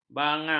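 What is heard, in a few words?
"bangang", Malay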